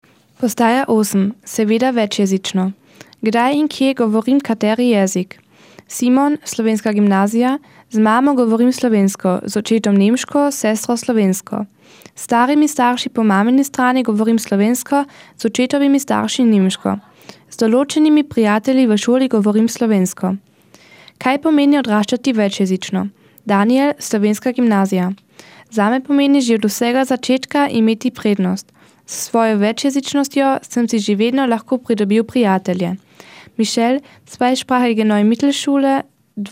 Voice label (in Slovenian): slovenski